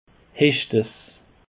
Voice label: "hechtess", Alsace

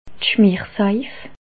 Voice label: "schmersaif", alsace

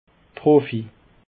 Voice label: "profi", Alsace

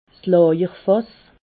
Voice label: "lajerfass", alsace

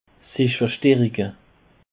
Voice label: "verstaerike", Alsace

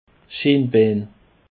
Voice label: "schinbaan", Alsace

